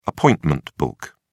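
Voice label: "appointment book", British English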